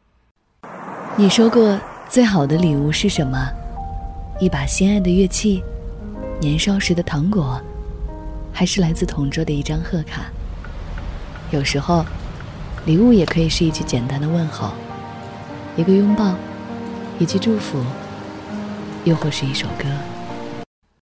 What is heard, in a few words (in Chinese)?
情感电台温暖旁白